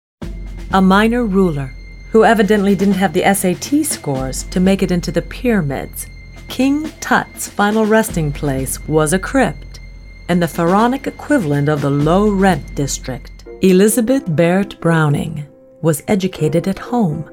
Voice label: Narration